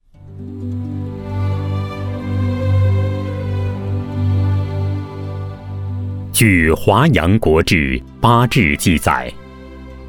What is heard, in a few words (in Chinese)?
男 城市介绍类专题; 重庆江北城